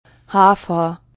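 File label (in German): "Hafer", German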